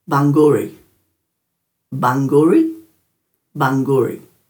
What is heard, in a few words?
"bangguri", Dhurga; mountain